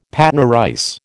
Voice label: "Patna rice", American

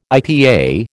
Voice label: "IPA", American

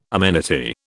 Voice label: "amenity", British

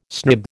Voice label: "snib", American

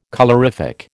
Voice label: "colorific", American

